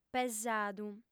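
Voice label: "pesadu", female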